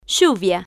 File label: "lluvia", spanish